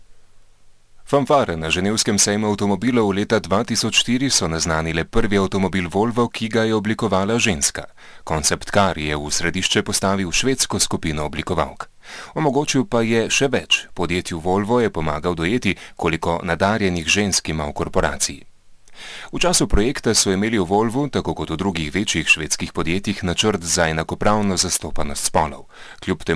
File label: Slovenian male